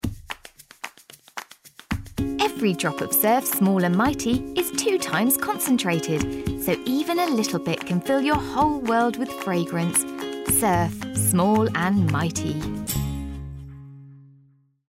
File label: Commercial; Surf